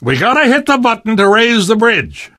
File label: hitting bridge button, voice; Left 4 Dead 2; Source Engine; video games; namvet